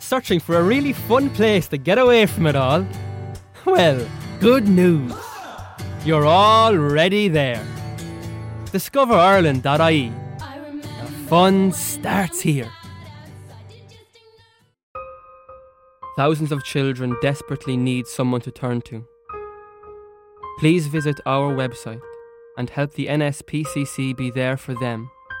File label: Commercial